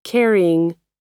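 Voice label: "carrying", American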